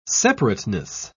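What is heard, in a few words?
"separateness", British English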